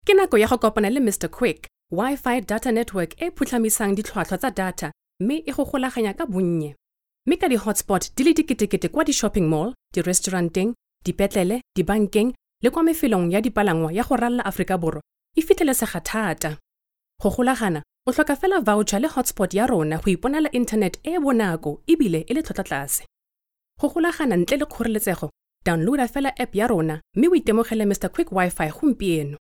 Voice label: Tswana Conversational

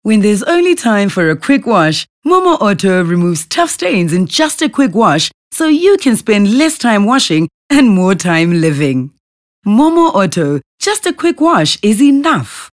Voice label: English Bright

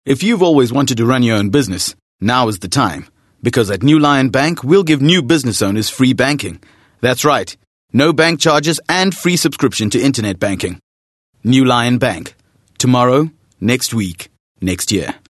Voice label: English Corporate

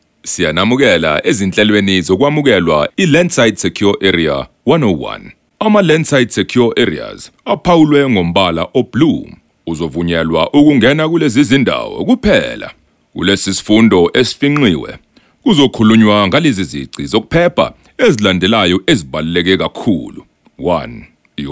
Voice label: Zulu Corporate Showcase

